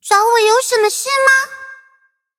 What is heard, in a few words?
touch, zh-hant; Cookie Run